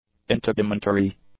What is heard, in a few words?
"integumentary", English; adjectives